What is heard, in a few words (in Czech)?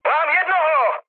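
Czech player killed enemy, mood medium; speech; War Thunder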